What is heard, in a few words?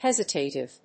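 "hesitative", English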